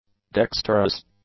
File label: "dexterous", english; adjectives